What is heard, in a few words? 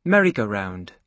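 "merry-go-round", British English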